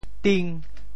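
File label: "ting5", Teochew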